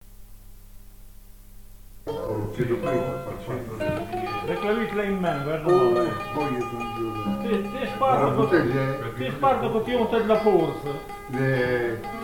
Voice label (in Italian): chiacchiere e accordatura